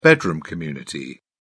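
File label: "bedroom community", English, British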